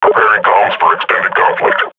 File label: combat idle, grunt